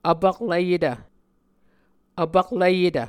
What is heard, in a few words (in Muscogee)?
"vpaklvyetv", Muscogee